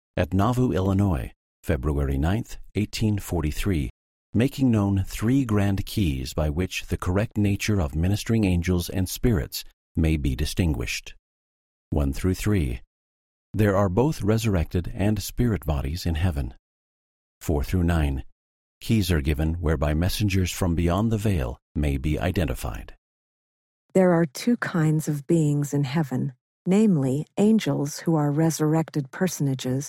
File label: section female voice eng